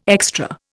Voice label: "extra", Indian

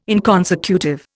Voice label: "inconsecutive", Indian

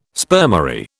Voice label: "spermary", British

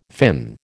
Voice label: "fin", American

